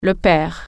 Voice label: father, french